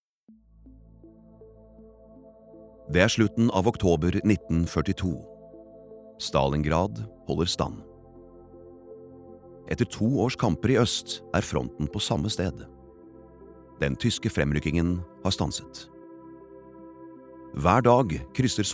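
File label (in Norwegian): Norwegian dokumentar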